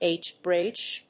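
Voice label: "H-BRIDGE", English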